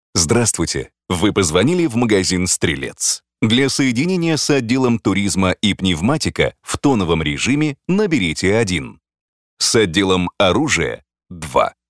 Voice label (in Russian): Озвучка диктора для спортивного магазина; ДИКТОРЫ ДЛЯ IVR